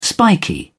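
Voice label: "spiky", British English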